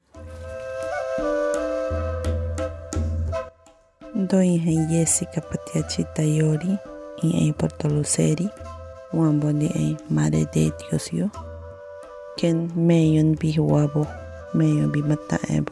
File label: LENGUA HARAKBUT